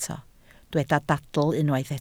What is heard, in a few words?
"dadl", welsh